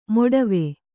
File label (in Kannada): "ಮೊಡವೆ", Kannada